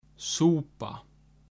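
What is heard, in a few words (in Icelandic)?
"súpa", Icelandic; matarhefti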